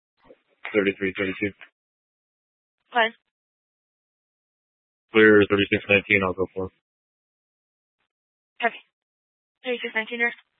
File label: Police 1 Dispatch